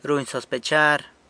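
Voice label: "runy sospechar", San Lucas Quiavini Zapotec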